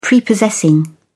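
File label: "prepossessing", British English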